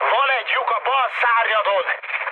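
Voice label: Hungarian left wing mood high, speech; War Thunder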